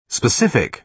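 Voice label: "specific", British English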